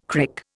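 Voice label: "crick", Indian English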